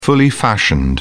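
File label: "fully fashioned", British English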